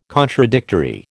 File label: "contradictory", American